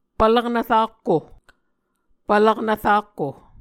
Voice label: "pvlaknv rakko", Muscogee

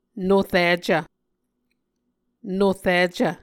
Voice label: "noricv", Muscogee

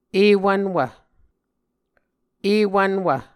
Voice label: "ewvnwv", muscogee

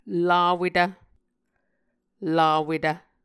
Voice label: "lawetv", Muscogee

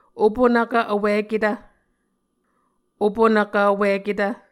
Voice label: "opunvkv vwiketv", Muscogee

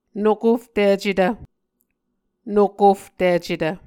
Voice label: "nokofticetv", Muscogee

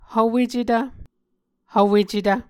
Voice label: "hvwecetv", Muscogee

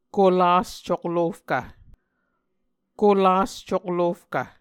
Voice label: "kolas coklofkv", Muscogee

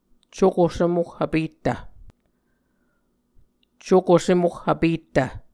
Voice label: "cuko sem oh vpettv", Muscogee

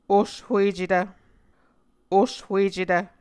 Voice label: "oshuecetv", Muscogee

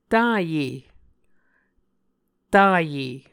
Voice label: "taye", Muscogee

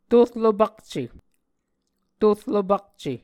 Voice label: "tor lopakce", Muscogee

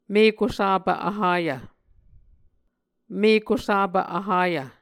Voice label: "mekusapv vhayv", Muscogee

